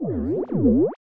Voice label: ight switch it up dj vocal; sound kit; project legacy; nova sound